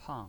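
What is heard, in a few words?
"pang" fourth tone, pinyin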